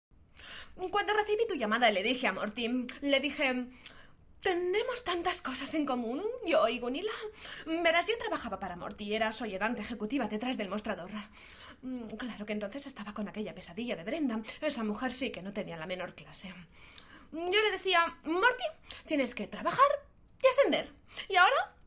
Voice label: locutora spain

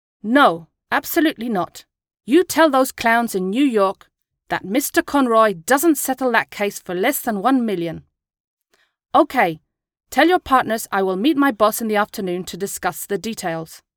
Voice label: locutora inglesa; Speaker Inglese

